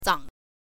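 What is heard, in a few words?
"zang", Mandarin Chinese, neutral tone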